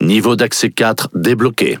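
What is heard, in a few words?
French Speech Access Level; World Of Warships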